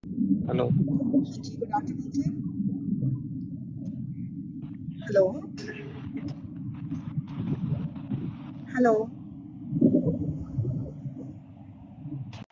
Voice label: call recording